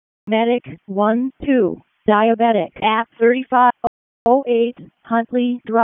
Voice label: EMS ALERT, p25

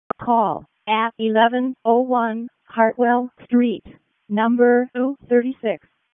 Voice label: EMS ALERT; wake

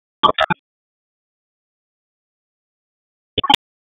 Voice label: Police Tac, p25; wake p25

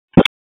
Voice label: Raleigh Police Northeast District Tac, p25; wake

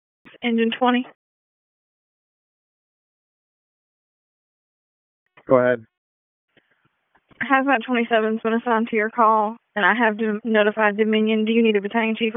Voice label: FIRE OPS, p25

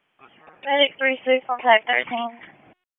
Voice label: PS TAC, p25; wake p25

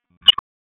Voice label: Raleigh Police Northeast District Tac, p25

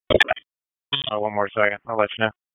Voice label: FIRE OPS, p25; wake

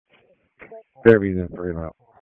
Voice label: PS TAC, p25; wake

